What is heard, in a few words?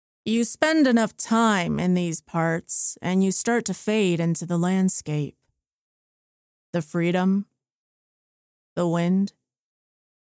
Male Rancher